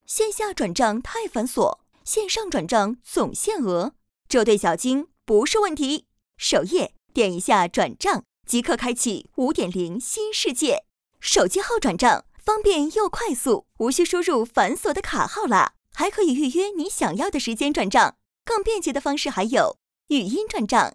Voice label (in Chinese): 女 活力女声类; 北京银行App